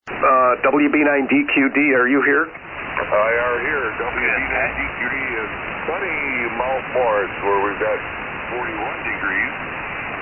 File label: Kenwood TS-450S; Mount Morris, Wi.